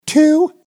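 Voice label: "two", English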